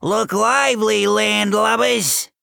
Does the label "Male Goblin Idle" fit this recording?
Yes